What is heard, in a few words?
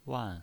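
"wan", pinyin voice, fourth tone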